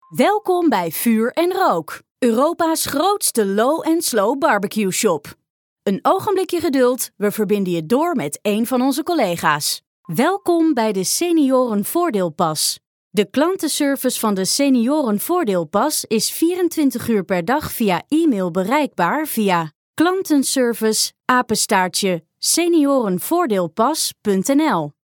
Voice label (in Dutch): Vrouw